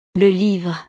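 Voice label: "le livre", French; utiles escolares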